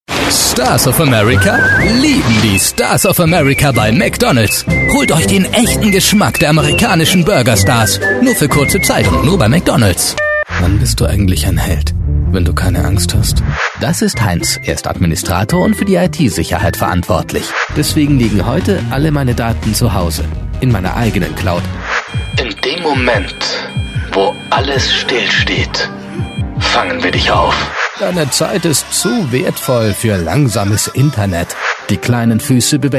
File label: german voice over male commercial